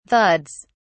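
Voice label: "thuds", British English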